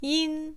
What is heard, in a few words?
"一ㄣ", Chinese